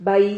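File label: "bai", Spanish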